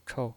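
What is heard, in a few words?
"chou", Mandarin Chinese pinyin voice, neutral tone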